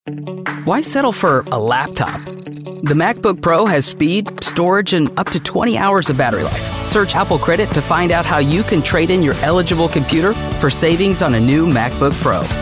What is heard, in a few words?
english american commercial; clean, clear, and youthful; conversational and upbeat